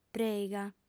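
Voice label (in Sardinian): "prèiga", Sardinian, female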